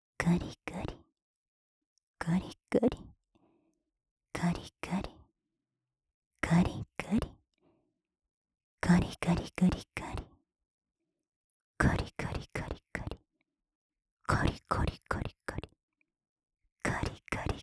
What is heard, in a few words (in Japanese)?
カリカリふつう, 左耳 低音お姉さん オノマトペオンリー; 乳首オナ指示でカリカリタイム